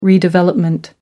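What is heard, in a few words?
"redevelopment", English, British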